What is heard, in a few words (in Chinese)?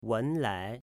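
"Wenlai", Chinese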